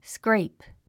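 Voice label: "scrape", 英単語 発音; skréip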